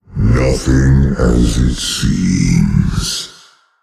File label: Male Old God TRIGGER PLOT TWIST